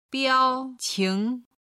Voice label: "biaoqing", Chinese